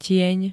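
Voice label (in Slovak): "tieň", Slovak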